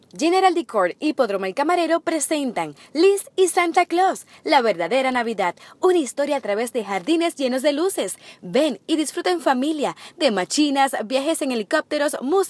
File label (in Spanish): VO Promo Parque Magico Navidad